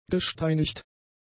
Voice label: "gesteinigt", German; adjectives

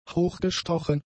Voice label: "hochgestochen", German; adjectives

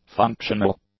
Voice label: "functional", English; adjectives

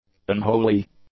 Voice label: "unholy", english; adjectives

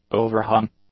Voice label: "overhung", English; adjectives

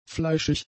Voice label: "fleischig", German; adjectives

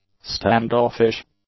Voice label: "stand-offish", English; adjectives

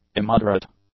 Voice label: "immoderate", English; adjectives

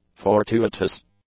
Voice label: "fortuitous", English; adjectives

